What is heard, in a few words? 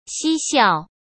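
"嬉笑", Mandarin Chinese; chinese-flashcards; hsk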